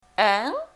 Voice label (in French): "un", singulier masculin; francais; Leçon3. Dans la classe